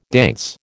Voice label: "dance", American